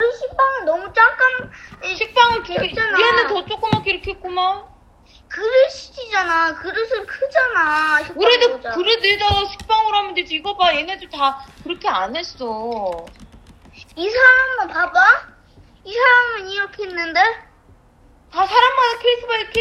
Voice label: video call